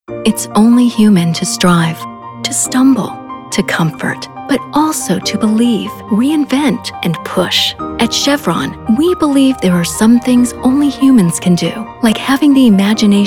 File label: Corporate Narration